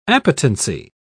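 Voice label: "appetency", British English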